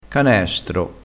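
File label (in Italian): "canestro", vocabolario dialettale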